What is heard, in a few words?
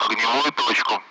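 Speech, Russian; Allied Flight; bunker; DCS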